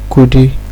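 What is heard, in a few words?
"kudi", Nigerian Pidgin, male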